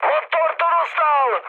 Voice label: damaged engine, speech cze, mood high; War Thunder

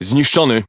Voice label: Speech Pilots Bomber Destroy, PL; World Of Warships

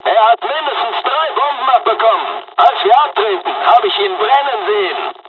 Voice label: dialog, dialogs german; War Thunder; midway

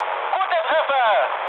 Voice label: bomb success, mood med, speech ge; War Thunder

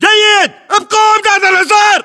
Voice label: order action boost, battle chatter, english

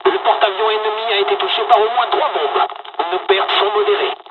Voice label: dialog, dialogs french; War Thunder

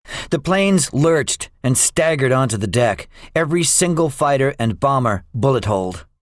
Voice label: debriefing, english; us guadalcanal; War Thunder

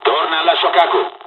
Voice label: mission fly, dialogs italian; cors mission; War Thunder

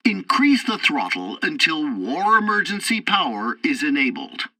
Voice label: tutorial fighter throttle, english; War Thunder

